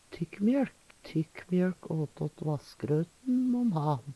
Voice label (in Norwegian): tykkmjolk, Numedalsmål